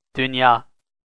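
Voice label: "dunya", Turkish, male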